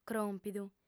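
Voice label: "cròmpidu", female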